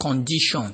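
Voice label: "condition", Nigerian Pidgin, male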